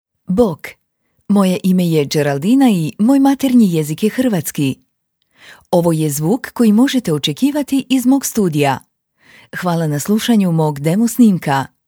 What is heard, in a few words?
hr informative